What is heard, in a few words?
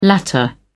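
"latter", British English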